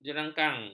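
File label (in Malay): "jerangkang", Malay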